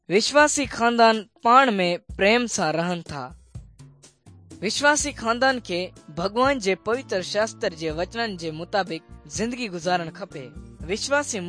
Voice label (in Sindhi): good news sindhi dukslinu, drama; sindhi pakistan nt drama